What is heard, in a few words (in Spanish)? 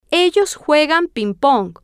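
ellos juegan ping pong, Spanish, female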